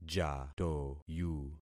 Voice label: "jatoyu", concat syllables; Affixing experiment

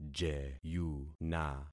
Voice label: "jeyuna", concat syllables; Affixing experiment

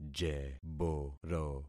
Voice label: "jeboro", concat syllables; Affixing experiment

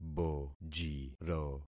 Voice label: "bojiro", concat syllables; Affixing experiment

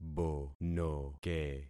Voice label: "bonoke", concat syllables; Affixing experiment

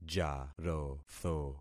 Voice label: "jarotho", concat syllables; Affixing experiment

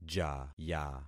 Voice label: "jaya", concat syllables; Affixing experiment

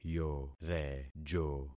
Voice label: "yorejo", concat syllables; Affixing experiment

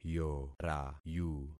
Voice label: "yorayu", concat syllables; Affixing experiment

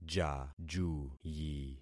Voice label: "jajuyi", concat syllables; Affixing experiment

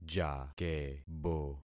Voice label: "jakebo", concat syllables; Affixing experiment

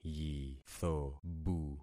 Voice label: "yithobu", concat syllables; Affixing experiment

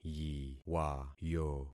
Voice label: "yiwayo", concat syllables; Affixing experiment

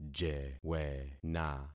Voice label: "jewena", concat syllables; Affixing experiment

